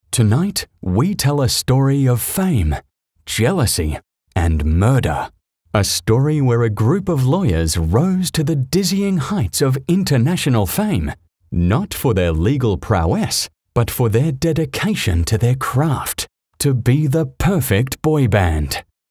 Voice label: Presenter